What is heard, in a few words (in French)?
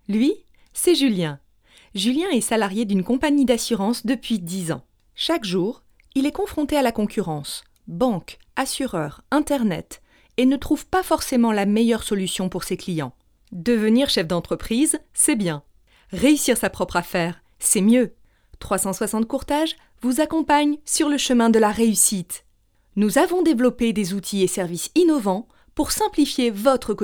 voix off femme fr